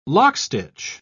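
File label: "lockstitch", English, British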